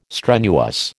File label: "strenuous", American